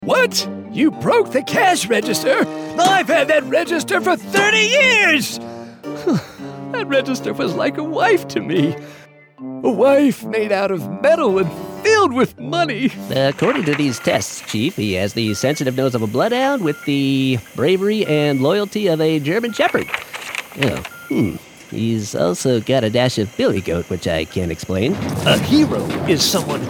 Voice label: Animation